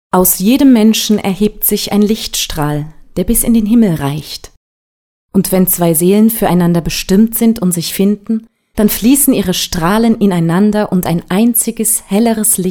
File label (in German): deutsch; freie redner leipzig